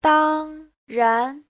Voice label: "dang1 ran2", chinese; 当然; Giáo trình chuẩn HSK3

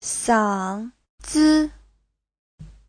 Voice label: "sang3zi5", Chinese; 嗓子 : cổ họng; Giáo trình Hán ngữ

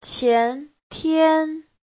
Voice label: "qian2tian1", chinese; 前天

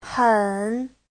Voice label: "hen3", chinese

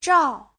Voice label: "zhao4", Chinese; (động từ) chụp

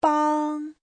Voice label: "bang1", Chinese

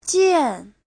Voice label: "jian4", chinese

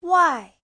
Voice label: "wai4", Chinese

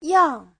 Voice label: "yang4", Chinese; 样; loại

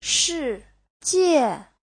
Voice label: "shi4jie4", Chinese; Giáo trình Hán ngữ

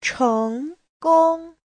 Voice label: "cheng2gong1", Chinese; 成功 : thành công; Giáo trình Hán ngữ

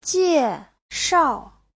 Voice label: "jie4 shao4", chinese

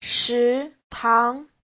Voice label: "shi2tang2", Chinese

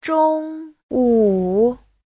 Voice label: "zhong1wu3", Chinese; 中午

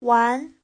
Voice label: "wan2", Chinese

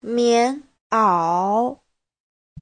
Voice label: "mián'ǎo", Chinese; Giáo trình Hán ngữ

